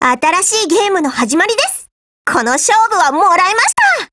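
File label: GO ATTACK, Japanese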